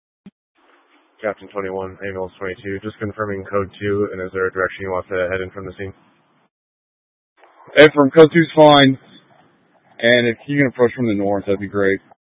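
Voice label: Incident Tactical, scanner audio recording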